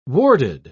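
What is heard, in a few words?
"warded", English, British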